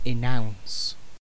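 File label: "enounce", British English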